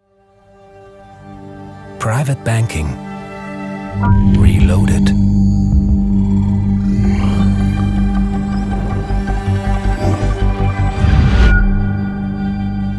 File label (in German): werbung; volt vontobel